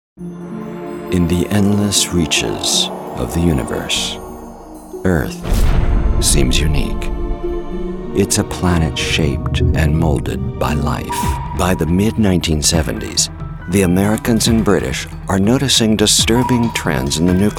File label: Narration